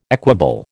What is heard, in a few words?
"equable", American